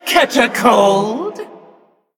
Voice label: Female Elemental Attack